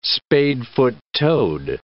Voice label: "spadefoot toad", English

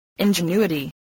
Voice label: "ingenuity", spelling voice

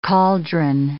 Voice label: "cauldron", English

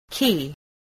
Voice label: "key", English

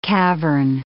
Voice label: "cavern", English

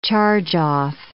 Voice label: "charge-off", English

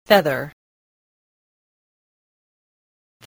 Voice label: "feather", spelling

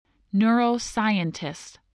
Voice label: "neuroscientist", voice

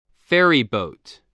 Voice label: "ferryboat", spelling

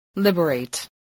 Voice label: "liberate", spelling

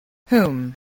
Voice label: "whom", voice, english

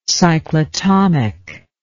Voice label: "cyclotomic", english

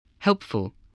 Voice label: "helpful", English, spelling

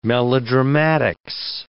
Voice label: "melodramatics", English

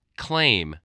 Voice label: "claim", English, voice

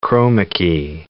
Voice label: "chroma-key", English

